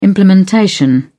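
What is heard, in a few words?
"implementation", British English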